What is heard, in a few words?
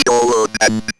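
ROBVOX, robot voice; E-Lab; X Static Goldmine 1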